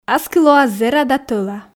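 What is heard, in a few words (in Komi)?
аски лоас зэра да тӧла, Komi, female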